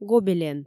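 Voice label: "гобелен", Komi, female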